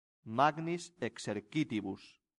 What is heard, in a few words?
"magnis Exercitibus", Latin; santillana